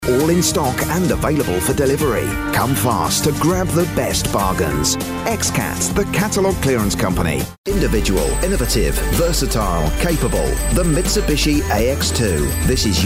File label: male british voice over sales, english british